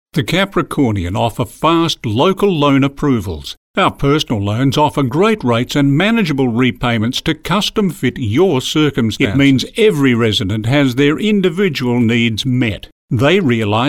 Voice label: Soft Sell